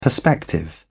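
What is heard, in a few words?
"perspective", British English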